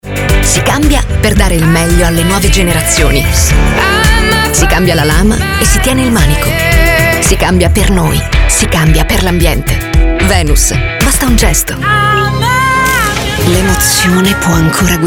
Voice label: ITALIAN VOICES Commercials